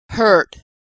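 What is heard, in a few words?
"hurt", American English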